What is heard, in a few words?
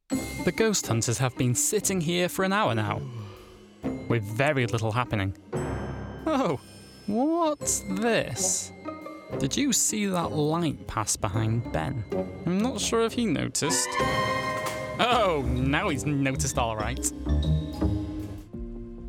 Narration